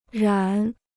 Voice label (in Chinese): "染", Chinese